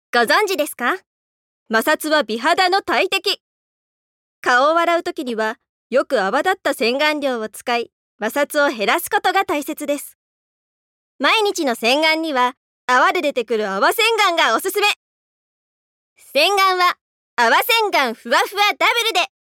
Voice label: Narration Senganryo CM

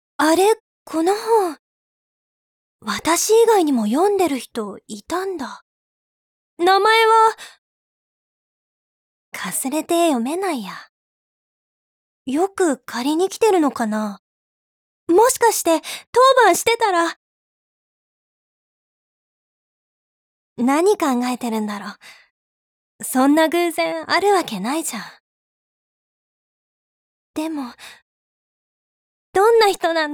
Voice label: Majimena Onnanoko

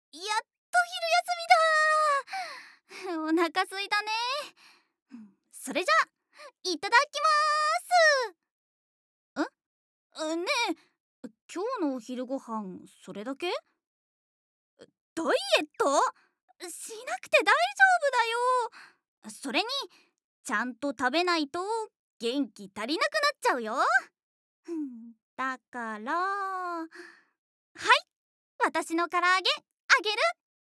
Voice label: 明るい女子高校生

